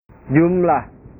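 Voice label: "jumlah", Indonesian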